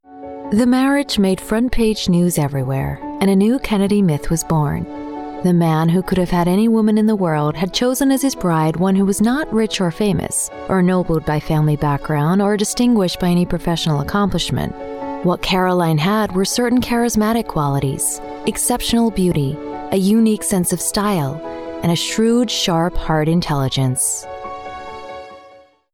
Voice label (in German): Off EN US, off kommentar englisch us